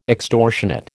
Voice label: "extortionate", American